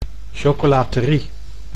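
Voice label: "chocolaterie", Dutch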